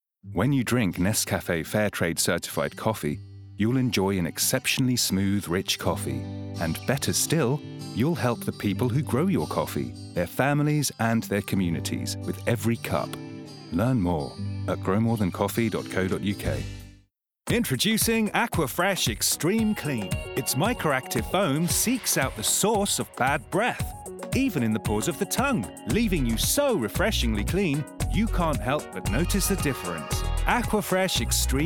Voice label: Commercial